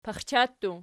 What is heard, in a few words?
"paxcha", Archi